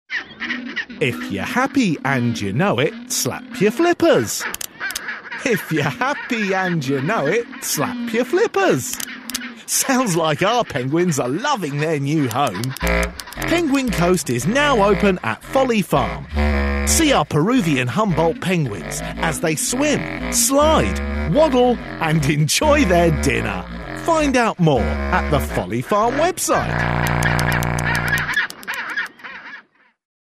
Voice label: kids tv presenter